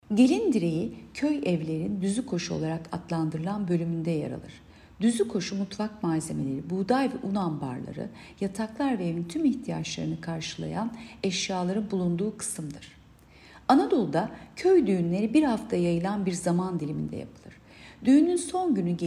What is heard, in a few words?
turkce